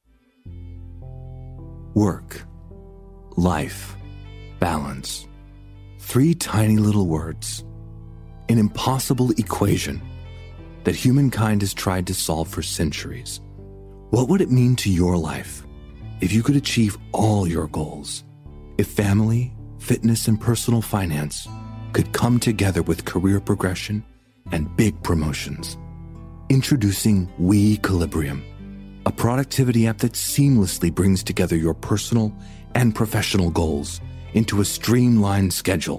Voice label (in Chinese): 英式英语 专题 低沉, 外籍男英; 外语